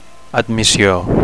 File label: "admissio", Catalan; admission